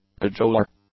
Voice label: "cajoler", English